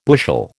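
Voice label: "bushel", American English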